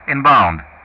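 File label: "inbound", English; Ka-50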